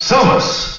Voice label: Announcer; Samus; SSBM